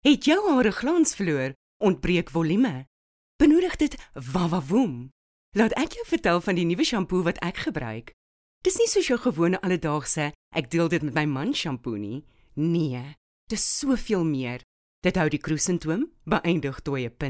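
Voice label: Afrikaans Bright South African Neutral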